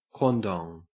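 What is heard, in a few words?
"quandong", Australian English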